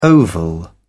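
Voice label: "oval", British English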